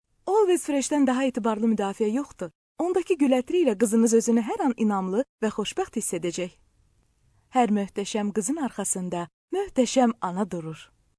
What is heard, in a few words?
Azeri